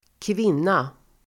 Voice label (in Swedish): "kvinna", Swedish